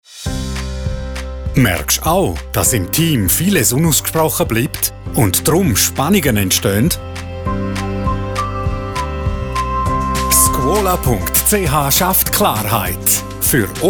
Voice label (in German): Radiospot